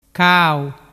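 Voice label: "cau", Vernazzese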